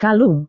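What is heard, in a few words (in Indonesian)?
"kalung", Indonesian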